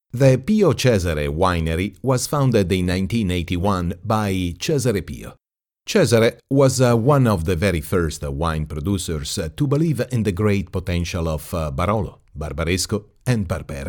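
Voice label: Italian, English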